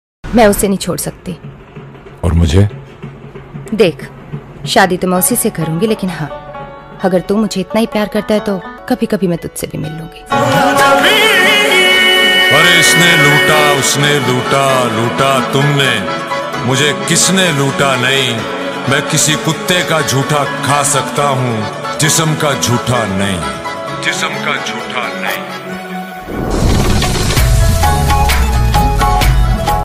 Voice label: dialogue song ringtone; kute ka jhuta